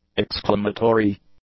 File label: "exclamatory", english; adjectives